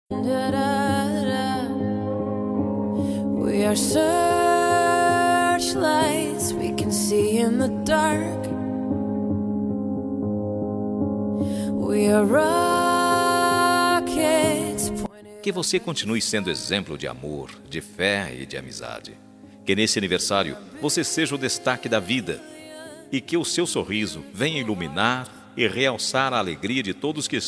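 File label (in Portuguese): aniversario irmao plural masculino, telemensagem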